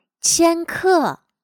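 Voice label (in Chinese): "千克" Mandarin Chinese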